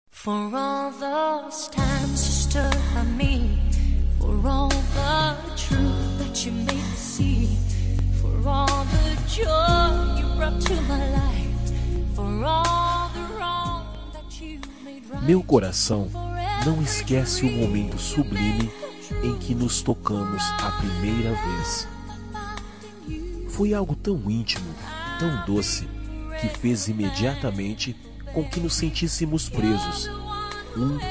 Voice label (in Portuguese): Romântica Eu Te Amo Muito